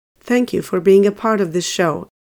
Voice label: Thank You For Being Part Of This Show Female Voice